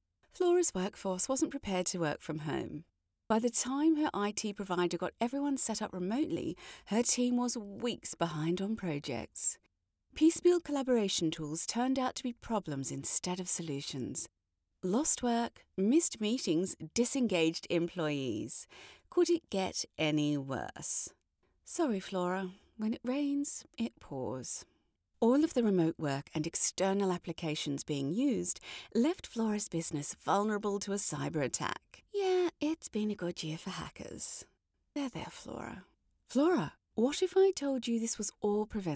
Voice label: comedic british animation

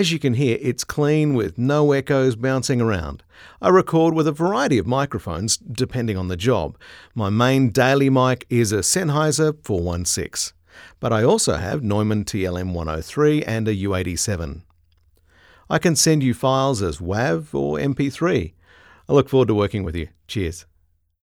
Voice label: studio

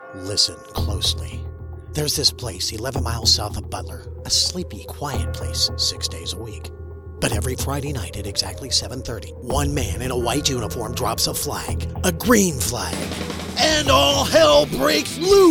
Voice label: speedway spot

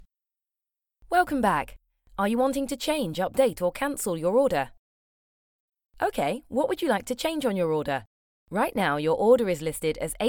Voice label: Alexa type

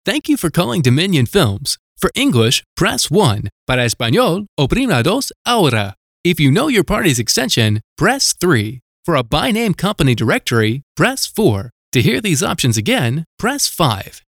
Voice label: IVR

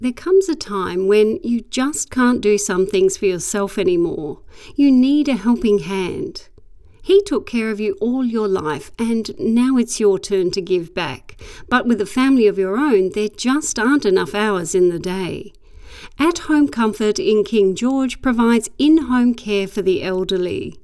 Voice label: Caring

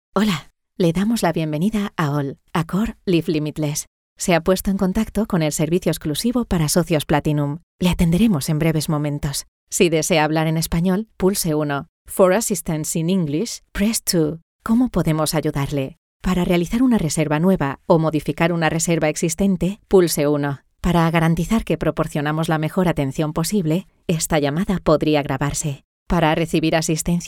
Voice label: Female Spanish ivr

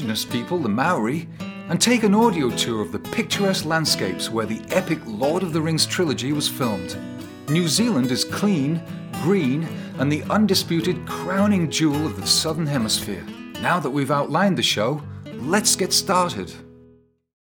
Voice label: Travel Podcast

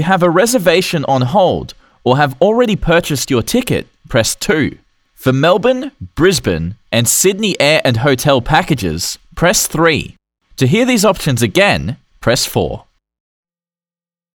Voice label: Travel Company Phone System

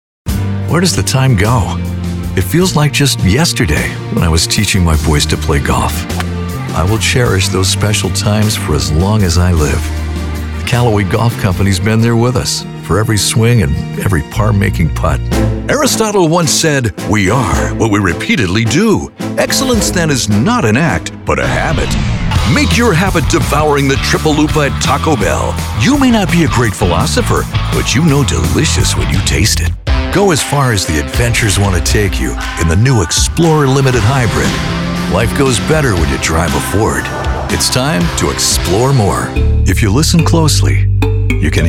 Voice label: Commercials

